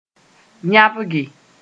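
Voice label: "yãbge", Mossi; force apart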